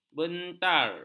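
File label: "bentar", Malay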